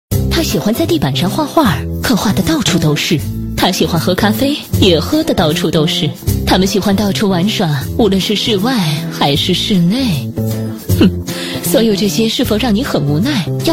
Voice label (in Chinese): 女 品牌广告 喷水拖把, 国语女声配音; 优雅配音; 博纳